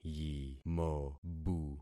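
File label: "yimobu", concatenated syllables; Affixing experiment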